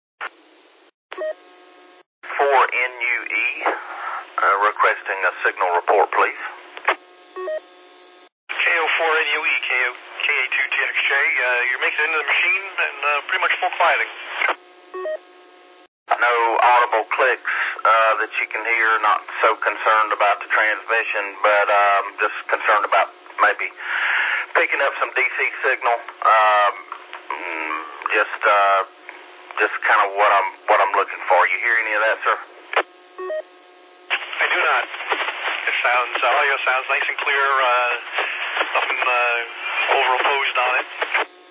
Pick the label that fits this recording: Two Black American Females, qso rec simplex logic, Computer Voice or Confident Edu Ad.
qso rec simplex logic